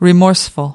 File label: "remorseful", us